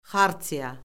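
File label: "xartiya", Azerbaijani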